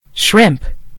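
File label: "shrimp", British English; gcompris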